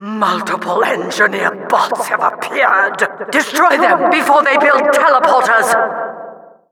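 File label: Announcer engineer bots arrive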